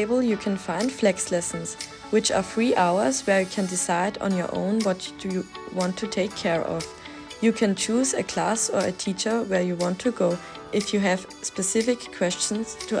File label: Englisch Audio school advertisement; Im Englischunterricht der 7N1/2 Klasse